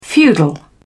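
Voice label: "feudal", English, British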